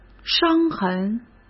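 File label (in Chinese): "伤痕", Mandarin Chinese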